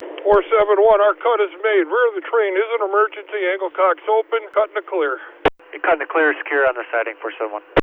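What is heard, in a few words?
Cars Secured, Radio; BNSF 3095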